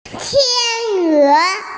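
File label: "swan", Mandarin Chinese, female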